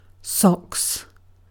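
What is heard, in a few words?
"socks", British English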